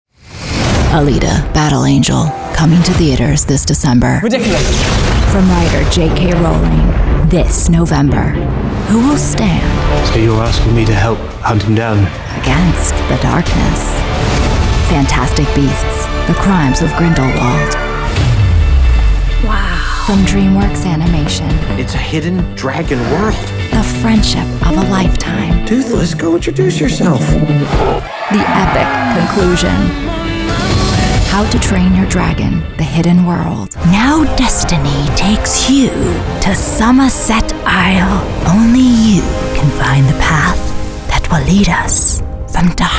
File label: Trailer